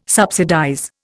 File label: "subsidize", Indian